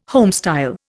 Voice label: "homestyle", Indian